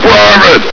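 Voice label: "private!", male; hl2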